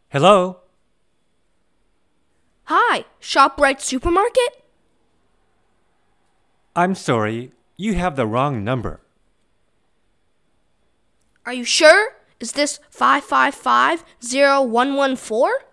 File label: basic english dialogs telephone